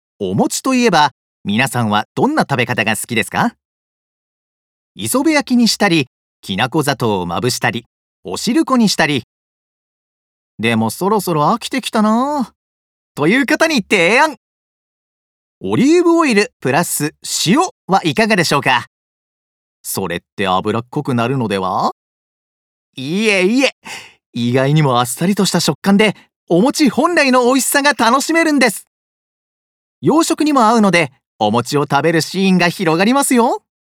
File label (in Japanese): 情報番組ナレーション